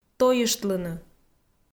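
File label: "тойыштлыны", Komi, female; audio dict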